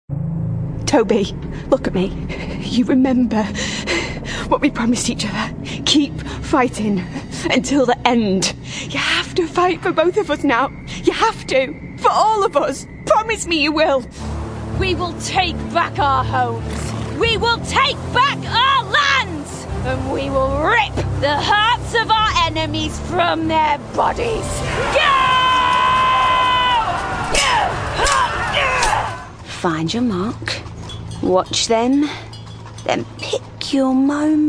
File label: english british video games